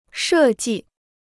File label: "设计", Chinese